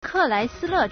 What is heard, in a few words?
"Kèláisīlè", Chinese; 克莱斯勒 (克萊斯勒); proper noun; Chrysler; Modern Chinese 现代汉语